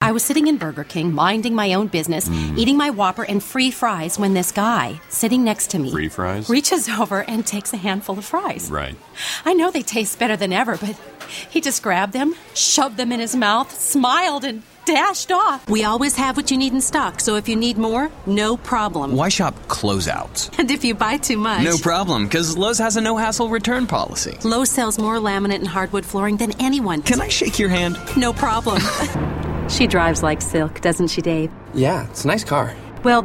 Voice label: Voiceover, Commercial, Women; New York; Commercial Reel